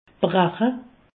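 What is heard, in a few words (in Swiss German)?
"brache", Alsatian; medecine; vomir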